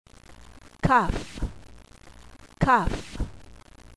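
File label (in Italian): "kaph", Ebraico; qabalah; alfabeto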